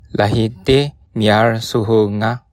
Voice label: pay, bonggi

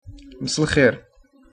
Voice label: good evening, arabic

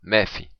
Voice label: be careful, occitan

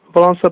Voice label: my name is, slovak; Volám sa ...

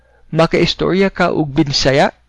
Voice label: do you speak, cebuano

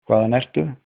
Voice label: where from, icelandic

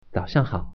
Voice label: "good morning", Mandarin

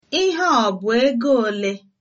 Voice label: how much, igbo

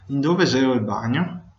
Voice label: toilet, venetian

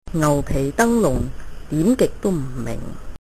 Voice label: crazy, cantonese

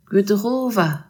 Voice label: good evening, alsatian

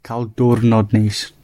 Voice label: have a nice day, gwentian

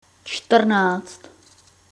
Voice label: "fourteen", czech

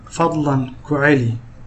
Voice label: say again, somali

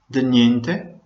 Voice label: "you're welcome", Venetian

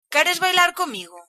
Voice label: dance, galician

